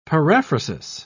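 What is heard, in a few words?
"paraphrasis", British English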